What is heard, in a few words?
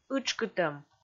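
"ытшкытӧм", Komi, female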